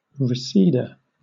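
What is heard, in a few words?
"reseda", British English; pronunciations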